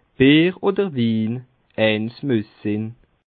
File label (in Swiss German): "win", Bas Rhin; bière ou vin l'un ou l'autre est nécessaire